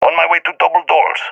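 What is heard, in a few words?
on my way double doors, balkan; balkan epic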